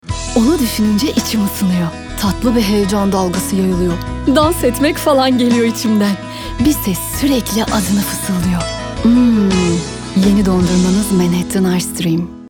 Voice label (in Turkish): enerjik esprili, seslendirme